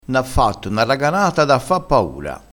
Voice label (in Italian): "raganata", vocabolario dialettale